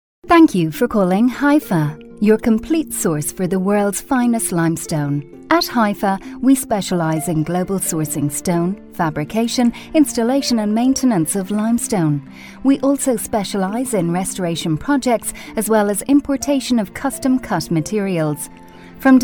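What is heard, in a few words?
Irish accent, on-hold marketing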